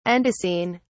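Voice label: "andesine", English, British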